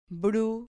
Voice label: "bru", Spanish syllable